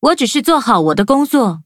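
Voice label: level up, traditional Chinese; Cookie Run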